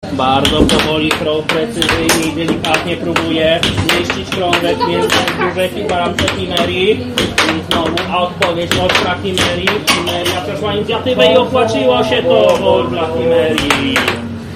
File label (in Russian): komentator